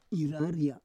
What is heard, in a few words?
"iraria", Roon